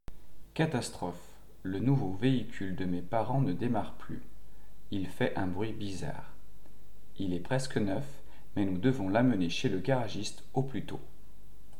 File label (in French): dictee